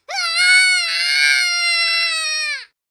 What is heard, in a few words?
scared; Biggles